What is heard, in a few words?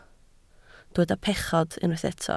"pechod", Welsh